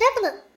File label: double, vocal; Techmino